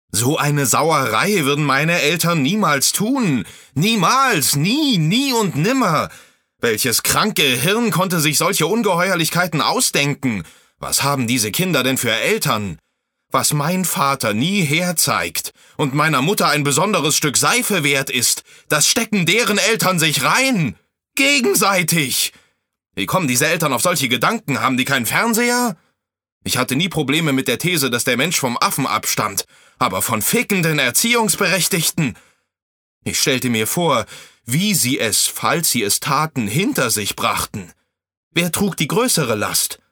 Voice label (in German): hoerspiel wild